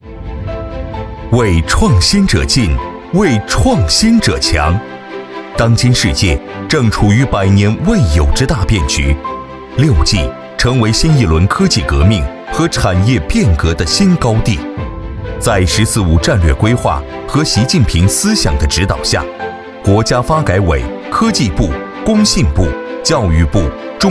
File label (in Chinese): 男 宣传片 中国6G